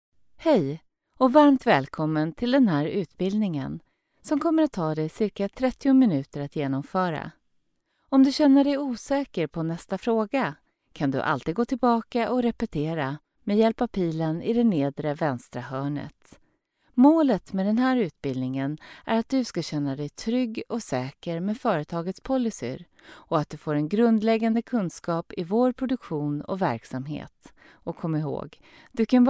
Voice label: female swedish voice over narrative